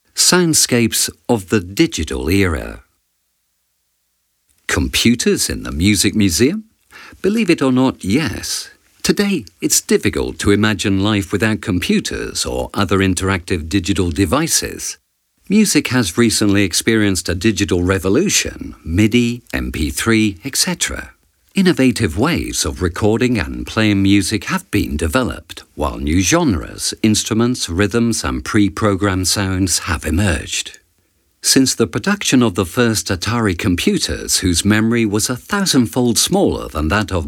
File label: audioguia english; delamusica